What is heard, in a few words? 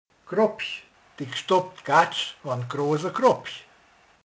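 "begy", Hungarian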